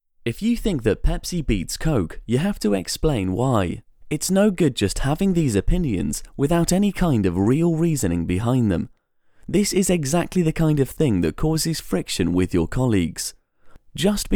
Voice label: e-learning PSA